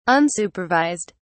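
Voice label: "unsupervised", British English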